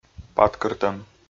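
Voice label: "паткыртӧм", Komi, male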